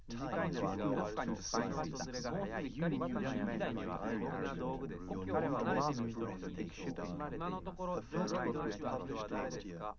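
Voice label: male, live recording, 130 ms, 5 cm, mix; SiSEC11; underdetermined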